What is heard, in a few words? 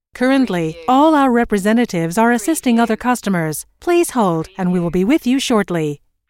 US female phone prompt; Currently all our representatives are assisting other customers - Please hold